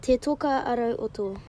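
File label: "te toka a rauhoto", Māori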